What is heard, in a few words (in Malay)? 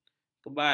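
"kebal", Malay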